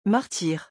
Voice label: "martyr", French